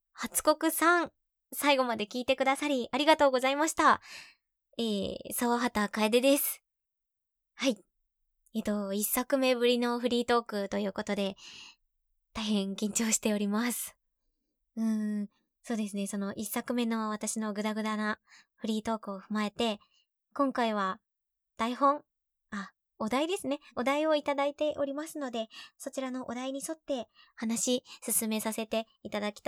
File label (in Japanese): フリートーク, ASMR